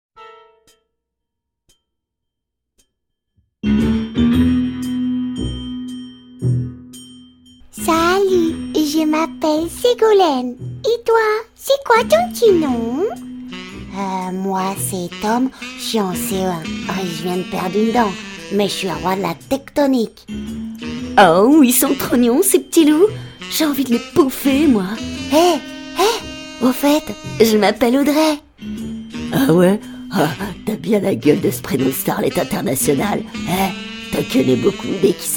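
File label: French, female, various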